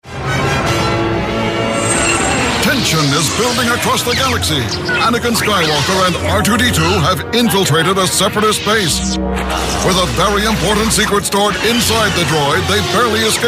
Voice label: US narration dramatic

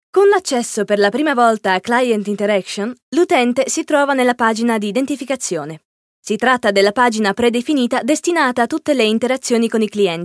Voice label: it elearning

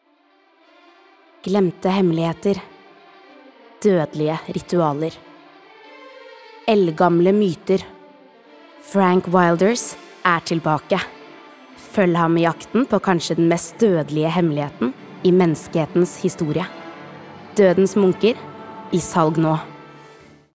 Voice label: no movie trailer

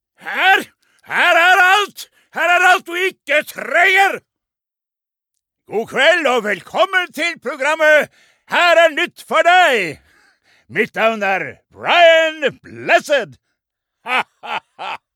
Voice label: no captain, norsk, mann

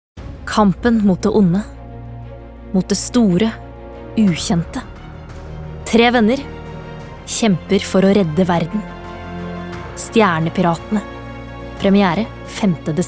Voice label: no movietrailer, kvinne; norsk

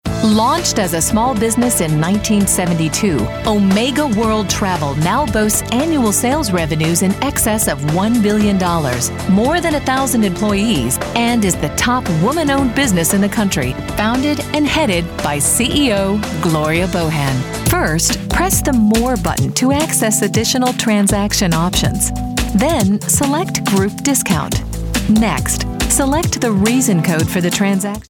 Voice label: us narration

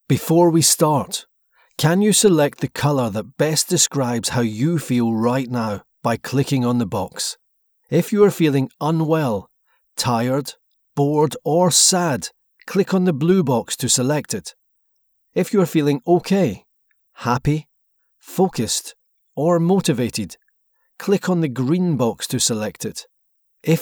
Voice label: uk scottish informative, engelsk-skotsk, mann

